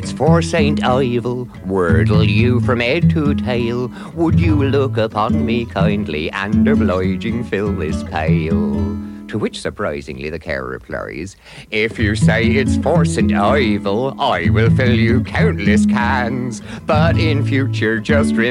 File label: Radio Hallam Advert - Gold Spread; St Ivel